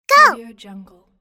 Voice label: cartoon girl "go"